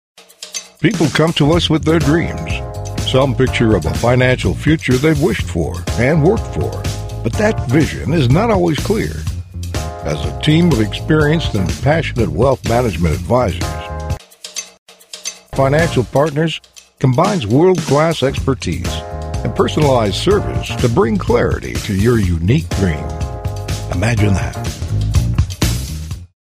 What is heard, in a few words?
Financial Planner Commercial Voice